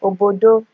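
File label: "obodo" Nigerian Pidgin, female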